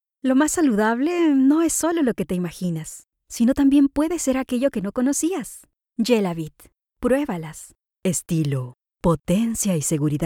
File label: Spanish commercial sample